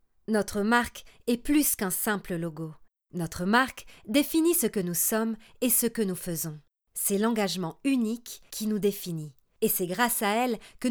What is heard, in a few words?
corporate, French, female